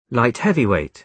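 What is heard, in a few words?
"light heavyweight", British English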